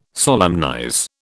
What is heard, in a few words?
"solemnize", British